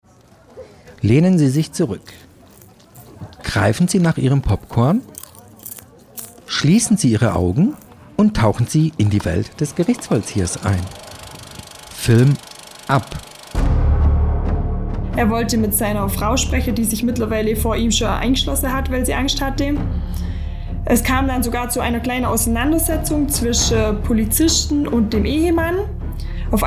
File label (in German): Radiospot; QRC